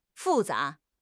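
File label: "fu4 za2", Mandarin Chinese; HSK4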